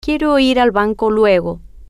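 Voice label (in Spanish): Quiero Ir Banco Luego, spanish, female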